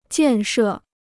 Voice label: "建设", Chinese